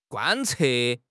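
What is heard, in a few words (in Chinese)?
"贯彻", Chinese; HSK; HSK6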